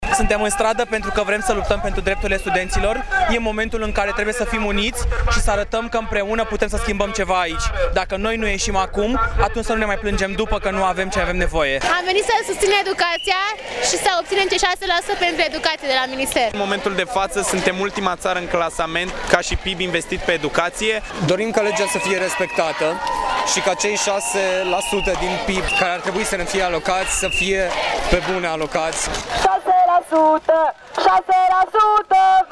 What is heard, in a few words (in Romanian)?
vox protest